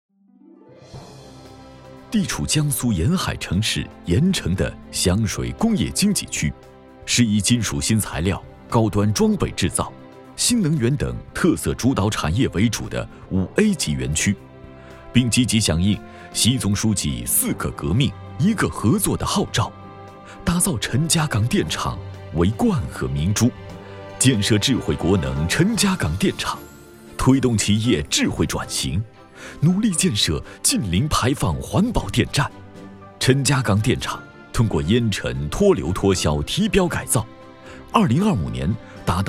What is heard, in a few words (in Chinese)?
男 企业宣传 平稳